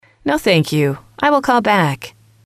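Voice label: "no thank you I will call back later", English, female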